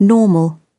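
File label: "normal", British English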